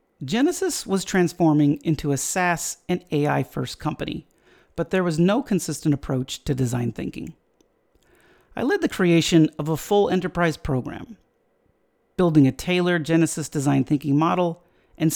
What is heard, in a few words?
voicetrack; genesysdt